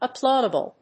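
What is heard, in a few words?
"applaudable", English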